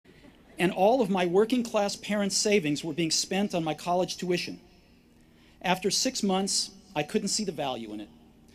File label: Commencement Address; Steve Jobs; 2005; Stanford